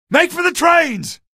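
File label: citizen evac defend us, male; vo; npc; source engine; episodic; episode 1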